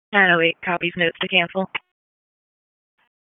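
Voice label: EMS DISP, radio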